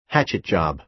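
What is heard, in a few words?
"hatchet job", American English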